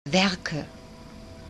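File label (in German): "Werke", German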